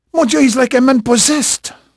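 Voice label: French male, voice; Pirates Of The Caribbean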